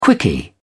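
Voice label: "quickie", British English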